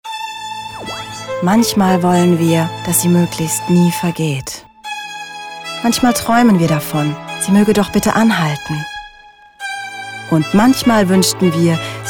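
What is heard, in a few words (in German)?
werbung uhren, Sprecherin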